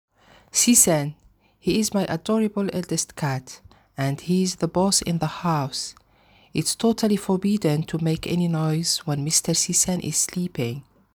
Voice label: English

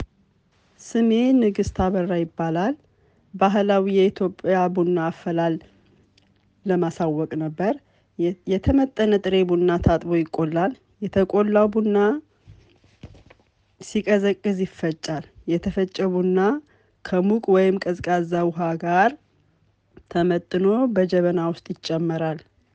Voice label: Ethiopian coffee ceremony Ahmaric

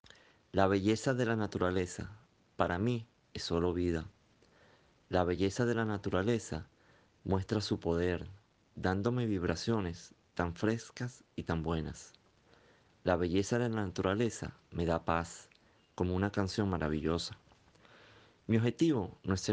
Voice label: Poema SPA

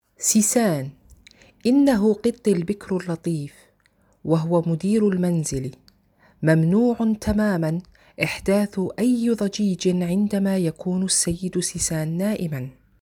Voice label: Arabic

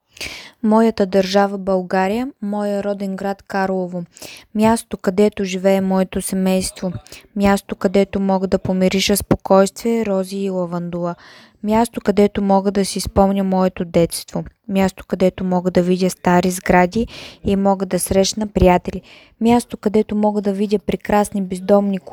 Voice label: Bulgarian

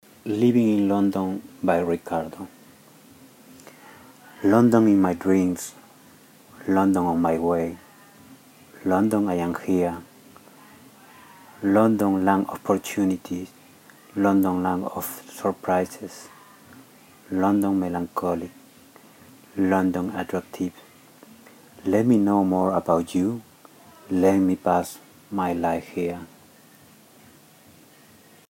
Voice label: Living in London SPANISH

